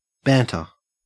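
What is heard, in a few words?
"banter", Australian English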